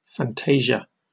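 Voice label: "fantasia", British English; pronunciations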